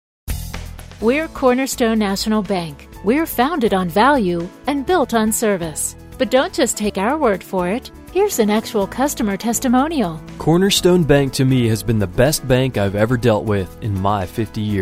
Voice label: On hold message; Cornerstone National Bank